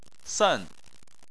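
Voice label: "sen" tone 4, Chinese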